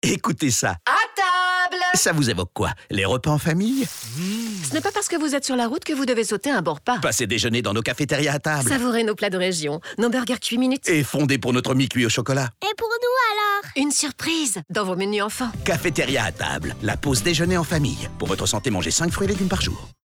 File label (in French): pub radio; Areas